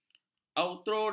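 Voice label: "avoirdupois", Malay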